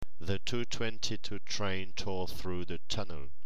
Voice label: train, tongue twisters; The two-twenty-two train tore through the tunnel.; Tongue twisters pour faire tourner la langue